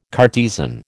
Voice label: "Cartesian", American

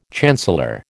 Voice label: "chancellor", American

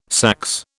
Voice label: "saxe", British

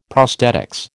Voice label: "prosthetics", American

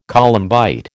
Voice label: "columbite", American

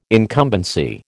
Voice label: "incumbency", American

